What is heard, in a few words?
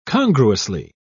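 "congruously", British English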